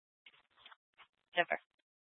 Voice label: Scottsdale Police, Simulcast; Simulcast H- Scottsdale